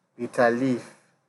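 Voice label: "bitterleaf", Nigerian Pidgin, male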